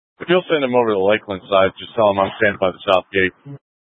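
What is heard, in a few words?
Incident Tactical 3, Scanner audio recording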